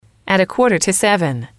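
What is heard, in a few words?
"a quarter to seven", female, English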